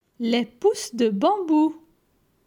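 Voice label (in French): "Les pousses de bambou", French; vocabulaire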